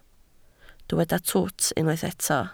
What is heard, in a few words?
"twt", Welsh